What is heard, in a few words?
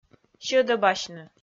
"сьӧдӧбасьны", Komi